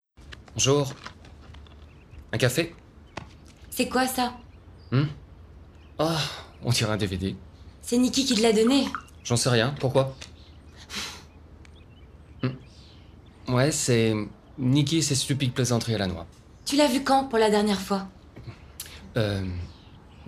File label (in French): film dubbing, voix off homme; locked away